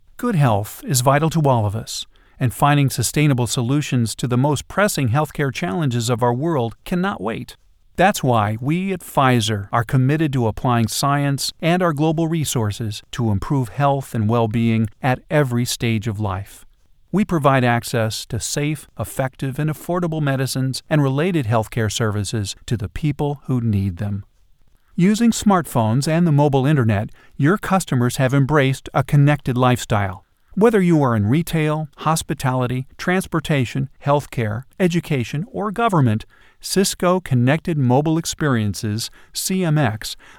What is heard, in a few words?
Corporate Image